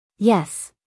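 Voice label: "yes", American English, female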